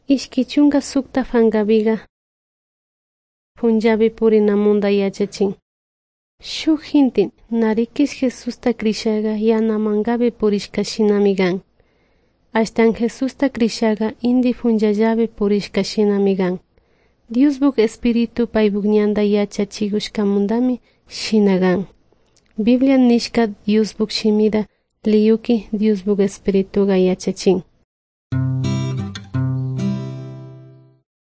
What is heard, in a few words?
good news quichua de salasaca; quechua; bible